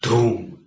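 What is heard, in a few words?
"dhuh2m", Proto-Indo-European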